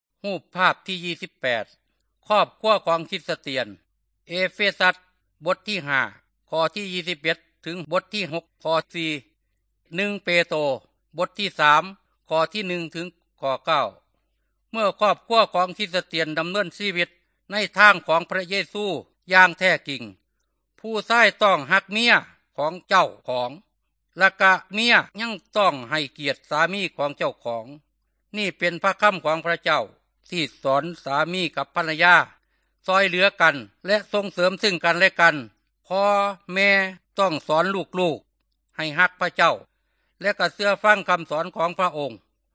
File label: good news thai northeastern